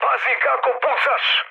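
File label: Serbian player friendly fire mood med; speech; War Thunder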